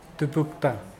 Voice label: buy, Mokilese